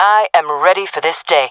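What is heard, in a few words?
round start, female voice; player vo; Counter Strike Model Pack; CS:GO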